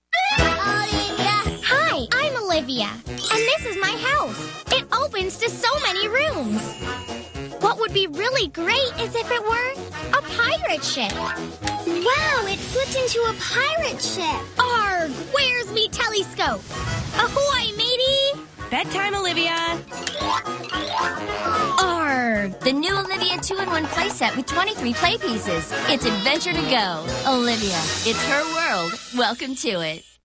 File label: commercial